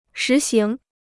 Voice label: "实行", Chinese

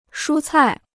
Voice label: "蔬菜", Chinese

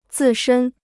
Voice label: "自身", Chinese

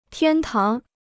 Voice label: "天堂", Chinese

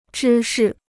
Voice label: "芝士", Chinese

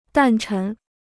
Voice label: "诞辰", Chinese

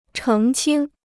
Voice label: "澄清", Chinese

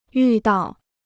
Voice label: "遇到", Chinese

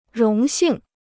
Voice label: "荣幸", Chinese

